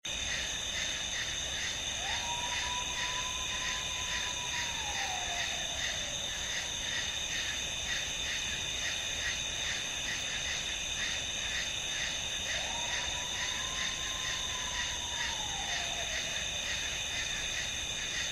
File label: weird vocal